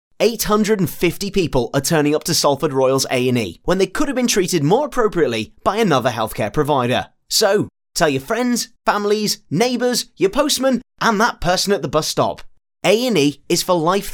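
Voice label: English British, male, voice over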